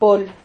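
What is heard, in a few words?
"pol", Spanish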